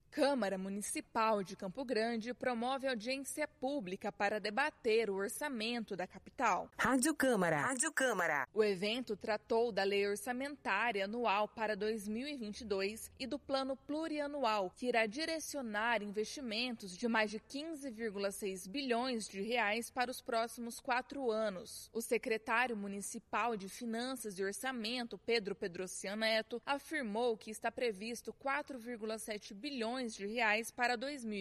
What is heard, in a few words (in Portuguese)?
spot radio; loa ppa